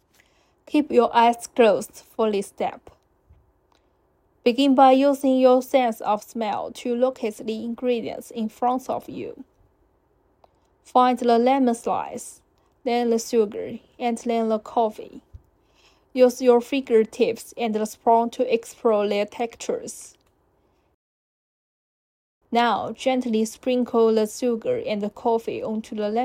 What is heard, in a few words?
optional audio instructions